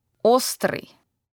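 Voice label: "spicy", Russian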